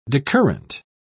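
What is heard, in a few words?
"decurrent", British English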